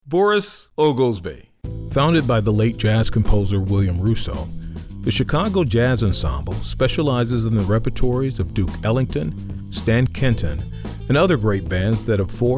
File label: Narration